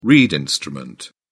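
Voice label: "reed instrument", English, British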